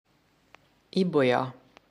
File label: "ibolya", hungarian; Violet; hungarian flower flashcards